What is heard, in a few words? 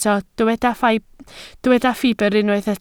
"ffibr", Welsh